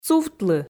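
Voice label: "сувтлы", Komi, female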